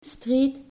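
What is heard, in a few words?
"streed", Dutch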